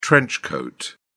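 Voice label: "trench coat", British English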